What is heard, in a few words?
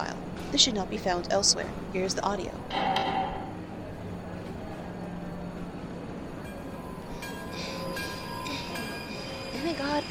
Valley Girl on a Train Improv Crowded Train Valley girl accent; F4M; Sweaty; Confident; Bumpy ride